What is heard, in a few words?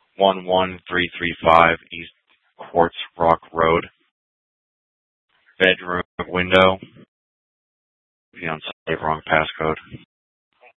Dispatch; Scottsdale